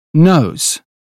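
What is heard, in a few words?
"nose", British phonetics; 英语音标发音（剑桥版）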